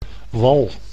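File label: "wal", Dutch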